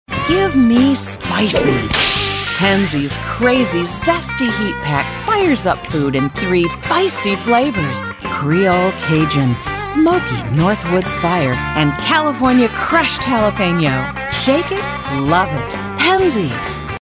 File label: commercial Spices